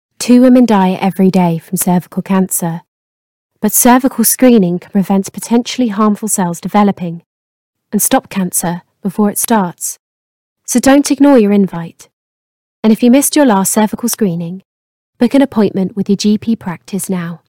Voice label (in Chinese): 外籍英语女声 医疗中心宣传广告 深情